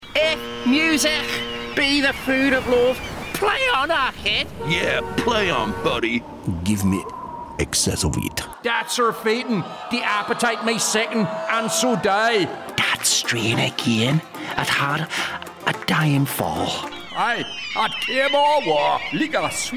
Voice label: accent reel, voiceover; Accent Showreel